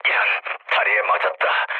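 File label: Korean damaged pilot mood low, speech; War Thunder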